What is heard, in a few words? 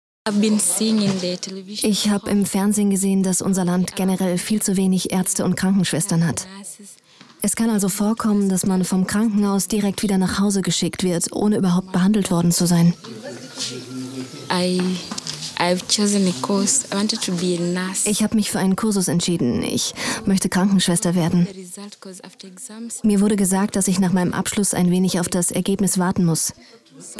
voiceover; asanti project